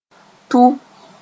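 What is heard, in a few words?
"tʋ", Mossi; to insult; Moore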